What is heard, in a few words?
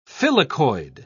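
"filicoid", English, British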